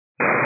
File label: 122 725MHz, scanner radio